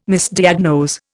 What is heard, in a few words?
"Misdiagnose", Indian